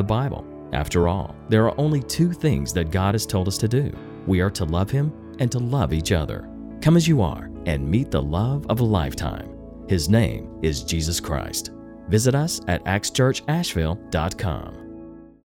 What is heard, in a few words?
Church Spot; ACTS Church